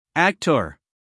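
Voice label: "actor", American English, male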